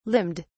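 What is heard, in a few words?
"limned", British English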